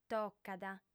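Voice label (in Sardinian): "tocat", female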